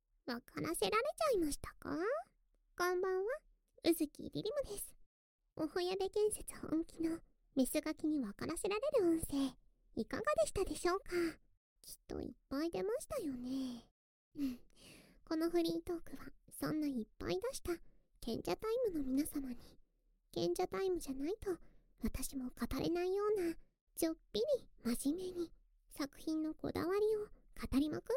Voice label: メスガキナース フリートーク(表); メスガキナースのマゾオス専門リハビリ治療院; 射精我慢あそび

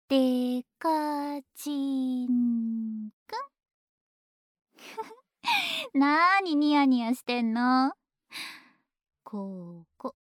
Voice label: フリートーク; SE無し; 性欲がバカ強い逆レイプ好き巨乳ギャルの童貞狩り‼→相性抜群おちんぽでオホ声連発恋人堕ち本気アクメ

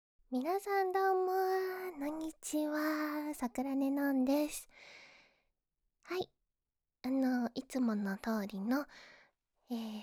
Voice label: まったりフリートーク; マウスサウンド・耳舐め超絶特化

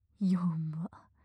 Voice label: よわ・強く・近・右; マゾオスのあなたに敗北射精の快楽を叩き込む音声

